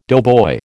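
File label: "doughboy", American English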